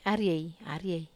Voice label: "arie", Wamesa

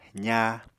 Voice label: "zaa", Apatani

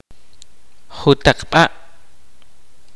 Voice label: kaqchikel; little by little

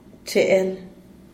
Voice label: "cheel", esposo

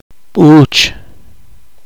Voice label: poqomchi

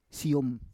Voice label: "siyom", Roon

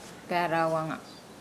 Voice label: "gaalauanga", Nukuoro

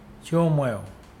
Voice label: become sick, Mokilese

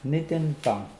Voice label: "nidinpoang", Mokilese

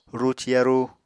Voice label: "ruci yarú", Apatani

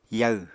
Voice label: "yaw", Apatani

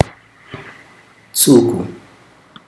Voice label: "breasts", female, Chikunda

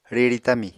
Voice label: "riri tami", apatani

